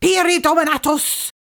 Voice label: "pyri dominatus" rushed, incantations older female; fire spells; psfx